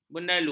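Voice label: "bendalu", Malay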